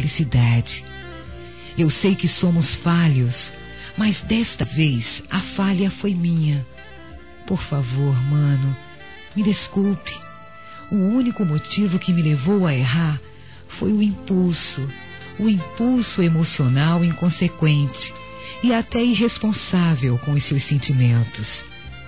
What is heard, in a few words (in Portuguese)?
Desculpas para Irmão f, Telemensagens; fem; Telemensagens 2017